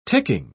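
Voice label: "ticking", English pronunciation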